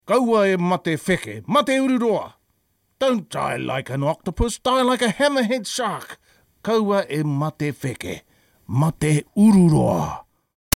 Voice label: Kaua e mate wheke mate ururoa!, Māori